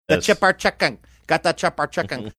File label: speaking in gibberish